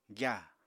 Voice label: "gyàa", Apatani

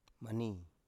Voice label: "mani", Biak

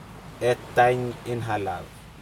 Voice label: baby crying, Aneityum

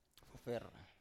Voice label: "fofer", Biak

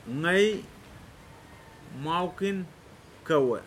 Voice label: "I like you", Pingelapese

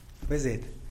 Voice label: "bezed" type of mosquito; animals

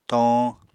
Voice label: "toñ", apatani

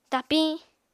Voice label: "tapiñ", apatani

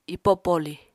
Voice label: "ipo poli", Apatani

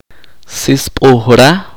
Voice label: "congelarse", chorti

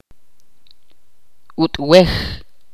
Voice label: "diente" in Ch'orti'

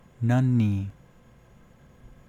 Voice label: navajo

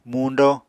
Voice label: "muñdo", Apatani

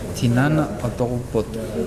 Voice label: "mother in law", Nukuoro

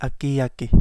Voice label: Kapingamarangi; white biblical dove

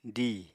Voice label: "diì", Apatani

